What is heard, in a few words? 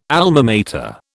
"Alma Mater", British